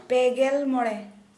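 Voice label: Santali, female, thirty five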